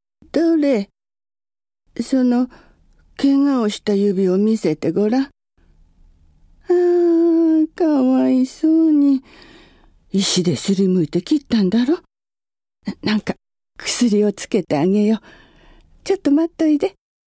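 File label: old woman, female voice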